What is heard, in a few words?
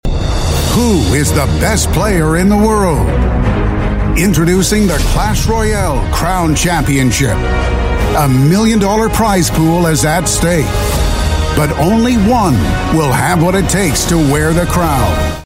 US English; Clash Royale Crown